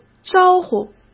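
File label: "招呼", Mandarin Chinese; hsk; chinese-flashcards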